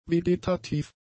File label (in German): "vegetativ", German; adjectives